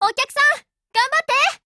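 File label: phrase, Japanese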